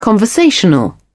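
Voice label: "conversational", British English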